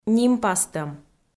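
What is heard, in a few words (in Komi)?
"нимпастӧм" Komi, female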